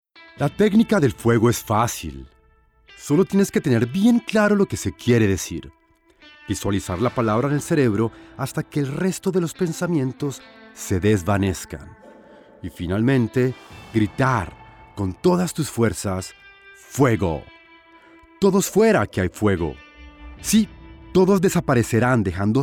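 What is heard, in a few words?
male latin american colombian comercial; ideasonora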